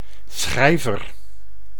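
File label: "schrijver", Dutch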